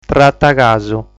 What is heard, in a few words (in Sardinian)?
"Trata Casu", sardu